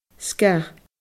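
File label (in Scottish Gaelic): "sgath", Scottish Gaelic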